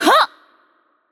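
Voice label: Attack Heavy, Korean; ko KR; VO; bloom minigame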